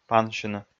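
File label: "пансьыны", Komi, male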